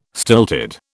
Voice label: "stilted", British